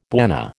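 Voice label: "bwana", American English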